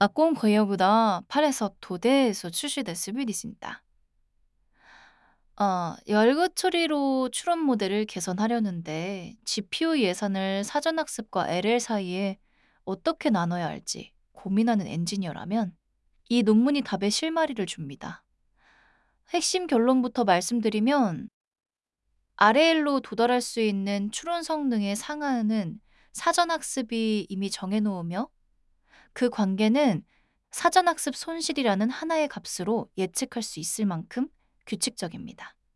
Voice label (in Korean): audiobook; reasoning pretraining to post training